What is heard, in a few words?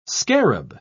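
"scarab", British English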